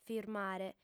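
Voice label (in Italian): "firmare", lingua sarda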